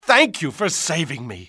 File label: thank, english male; XBOX; civ